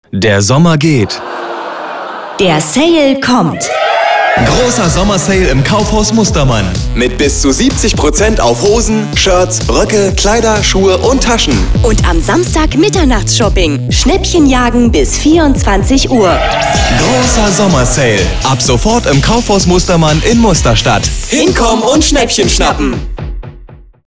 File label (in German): klassische werbung ton